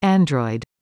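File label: "android", English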